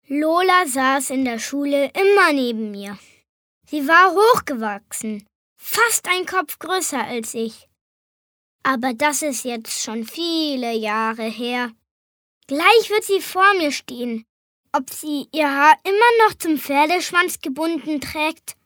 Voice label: Neutral; Schultage